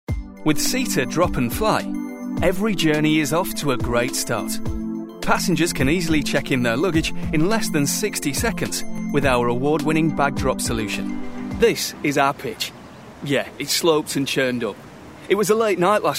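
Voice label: corporate, Real Northern Bloke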